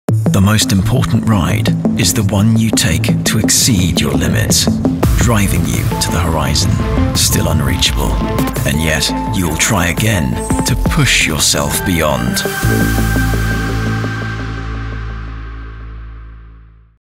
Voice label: Professional Cycling Tyres web commercial young UK male; Vittoria Corsa